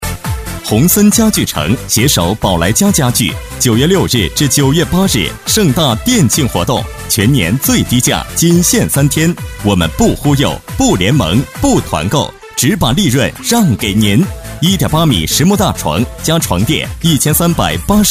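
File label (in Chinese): 促销, 男; 恒森家具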